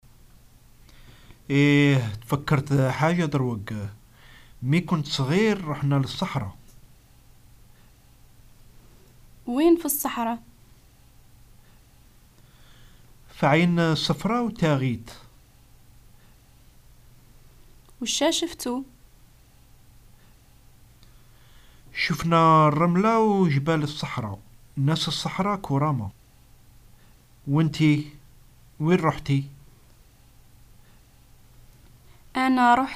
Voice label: past exper, arabic north african algerian dialogues